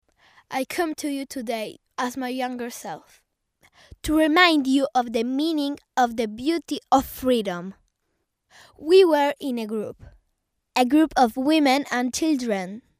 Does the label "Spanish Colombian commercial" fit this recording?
No